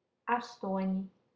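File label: "astoni", Latvian, female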